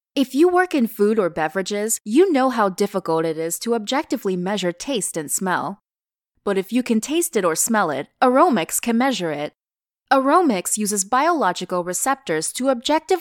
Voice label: 外籍女英 外语 美式英语 解说宣传类

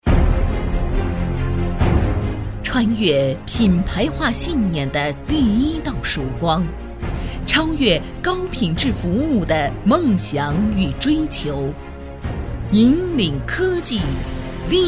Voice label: 专题 企业 激情; 正 星

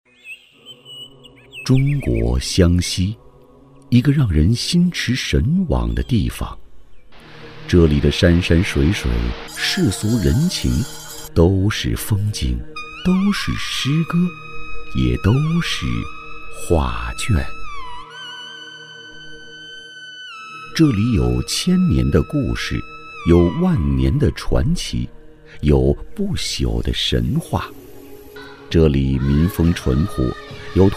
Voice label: 纪录片 人文历史, 男; 神秘湘西

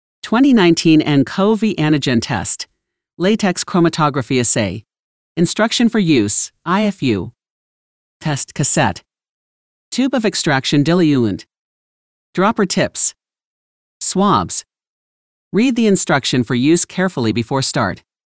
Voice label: 外籍女英 外语 美式英语 新冠产品测试

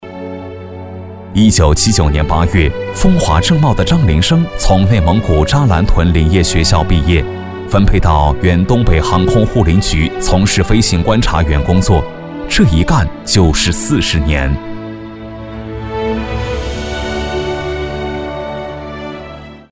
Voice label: 专题 人物 人物解说

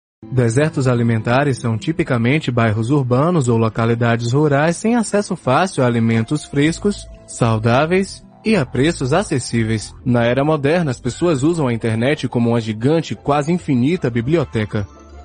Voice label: 葡萄牙语男 外语 成熟; 小语种

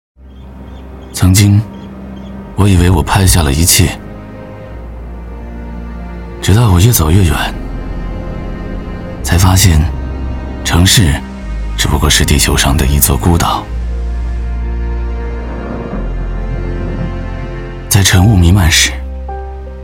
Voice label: 男国 宣传片 城市 讲述; 奥迪宣传片

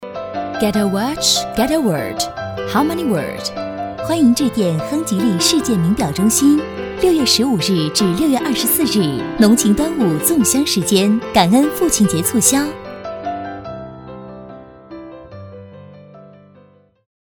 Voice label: 彩铃 中英文

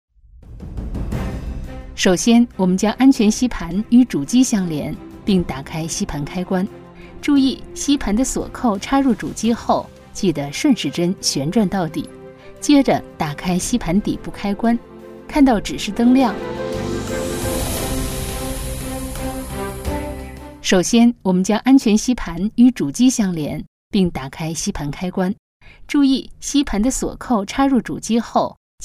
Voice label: 女 多媒体 产品演示 安全吸盘

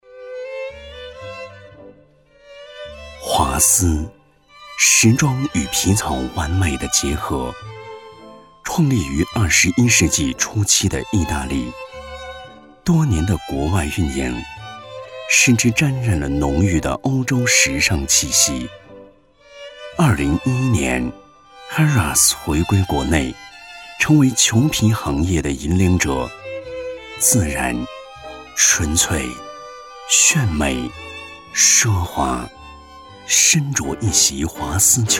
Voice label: 广告, 品牌形象, 优雅; 华斯品牌

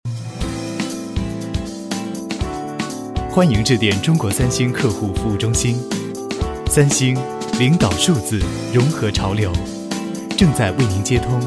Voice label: 彩铃 时尚彩铃; 三星

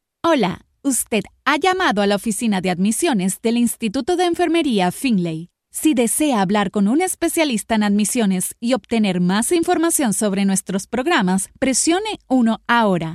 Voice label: 西班牙语女 外语 小语种

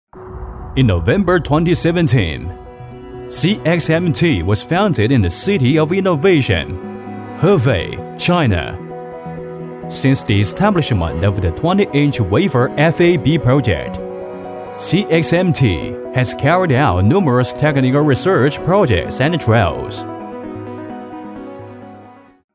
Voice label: 男英 专题 企业 科技; 英语; CXMT

